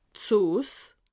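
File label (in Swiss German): "soos", Bas-Rhin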